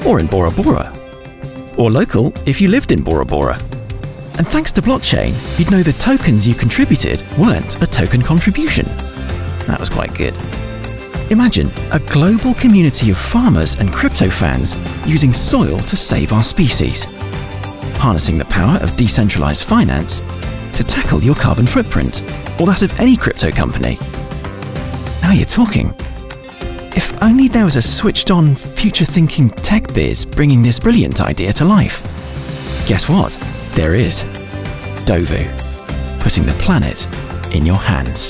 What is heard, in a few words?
corporate video young UK male voice over artist; Dovu